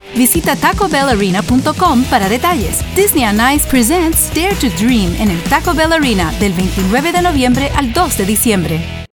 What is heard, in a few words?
Spanish; Disney On Ice